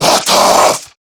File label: Attack, Male